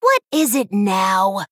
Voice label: Female Night Elf Play

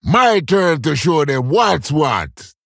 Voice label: Male Troll Play

